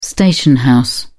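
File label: "station house", British English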